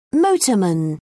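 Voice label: "motorman", British English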